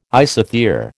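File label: "isothere", American English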